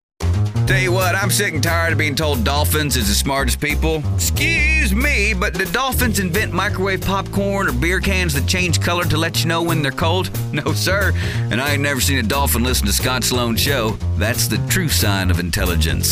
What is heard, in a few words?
Promos